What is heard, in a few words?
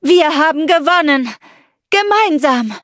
victory, de; Cookie Run